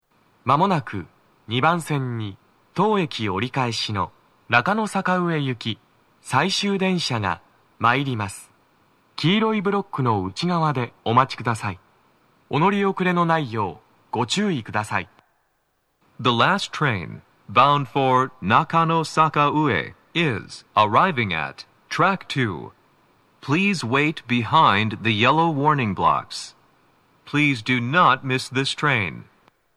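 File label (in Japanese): 接近放送 男声; 池袋; 放送は「中野坂上行き 最終電車」です。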